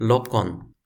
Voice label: "lokon", Toki Pona

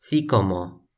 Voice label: "sikomo", Toki Pona

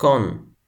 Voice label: "kon", Toki Pona

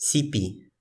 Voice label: "sipi", Toki Pona

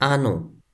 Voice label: "anu", Toki Pona

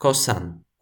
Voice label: "kosan", Toki Pona

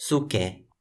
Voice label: "suke", Toki Pona

